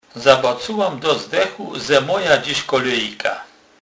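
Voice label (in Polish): "do zdechu", Kurpie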